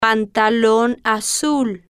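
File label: "pantalon azul", Spanish, female, slow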